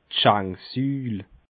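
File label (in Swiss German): "schenksuul", Bas Rhin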